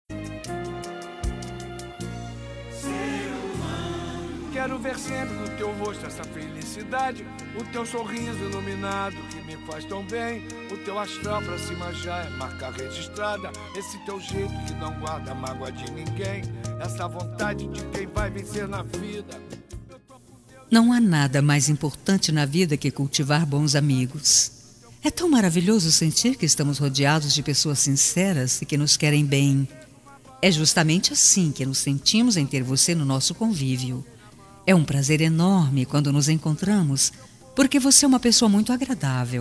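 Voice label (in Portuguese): birthday NEUTRO plural female, telemensagem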